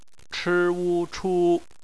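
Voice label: "chu", bopomofo, chinese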